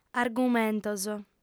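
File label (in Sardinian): "argumentos", lingua sarda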